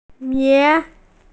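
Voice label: "min2", Mandarin Chinese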